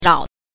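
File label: "rao", Mandarin Chinese syllable, neutral tone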